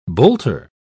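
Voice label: "bolter", British English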